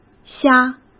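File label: "虾", Mandarin Chinese; chinese-flashcards; hsk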